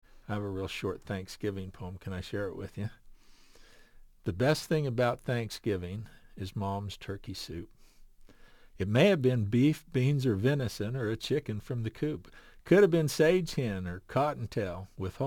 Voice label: Poetry